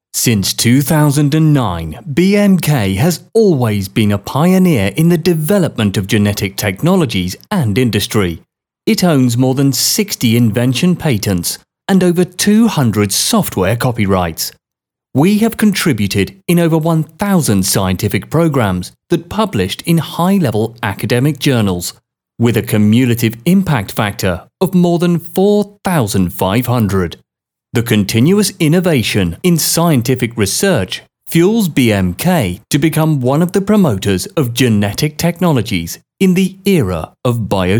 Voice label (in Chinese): 英文配音稿; 百迈客